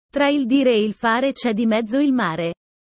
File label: italian sayings about life